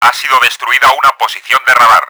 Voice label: radar down, Spanish; Battle Engine Aquila; XBOX